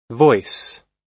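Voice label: "voice", US English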